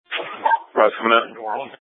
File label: Light Rail Ops, scanner; Light Rail Ops 1